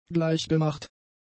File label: "gleichgemacht", German; adjectives